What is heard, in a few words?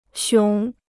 "熊", Chinese